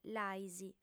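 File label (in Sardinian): "l'ais", Sardinian, female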